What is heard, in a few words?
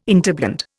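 "interblend", Indian English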